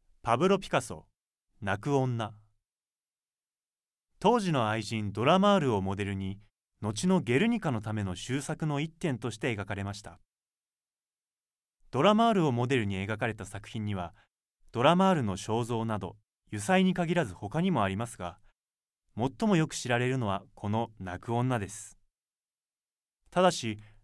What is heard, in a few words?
male; 美術館の音声ガイド